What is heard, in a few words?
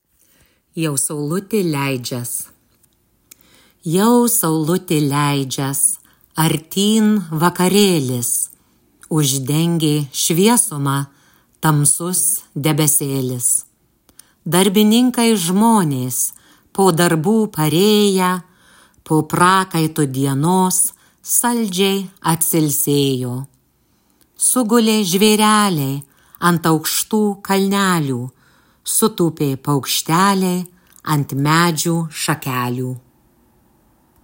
narration; jau saulute leidzias